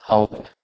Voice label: "haubed", Proto-Germanic